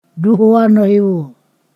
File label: "two thousand", Ponosakan